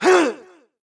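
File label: soldier voice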